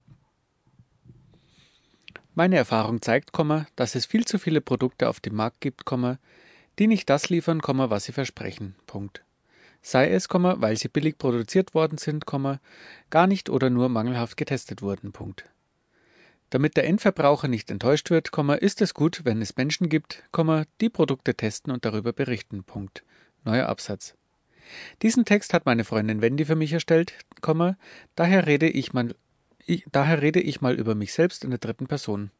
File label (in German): Probetext H2N; Heute ist Post gekommen, was Neues zum testen :-)